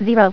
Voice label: "0", Voice Female; TalkingKeys

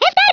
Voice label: "Oi nutter", Speech, Dutch; International; 11khz

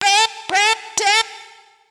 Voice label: VOICE GRL HOUSE 125 A; eJay Sound Collection 2

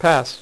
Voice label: "pass", dealer's speech; PowerPOKER Demo

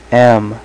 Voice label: "m" male, alphabet; Web Clip Empire 150,000

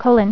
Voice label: "Colon", Voice Female; TalkingKeys

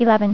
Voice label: "Eleven", female voice; TalkingKeys

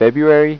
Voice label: "february", speech; Informer 1.0; InformerSpeak

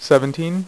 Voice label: "6", poker; iPoker 2000

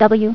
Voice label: "W", female voice; TalkingKeys

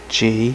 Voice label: "g" male, voice alphabet; Web Clip Empire 150,000

